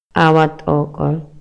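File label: Maya, male; HABLA Y LENGUAJE; INALI; MayaYuc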